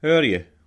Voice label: how are you, scots